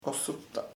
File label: death, chamacoco; la muerte